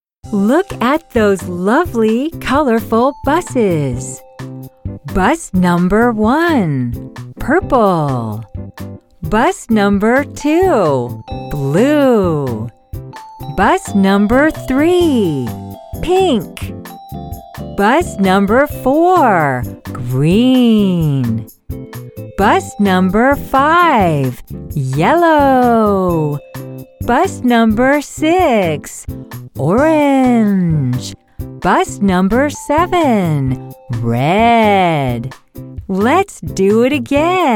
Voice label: english us and canada female e learning